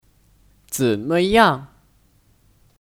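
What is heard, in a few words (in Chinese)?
"怎么样", Mandarin Chinese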